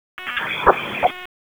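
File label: Police District Dispatch; wake p25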